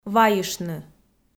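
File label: "вайыштны", Komi, female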